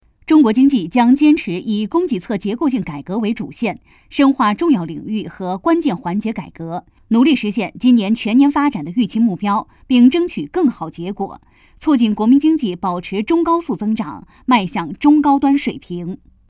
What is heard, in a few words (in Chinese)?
新闻类